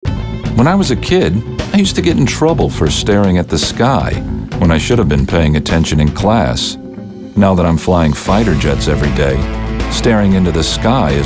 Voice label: Commercials